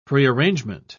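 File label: "prearrangement", British English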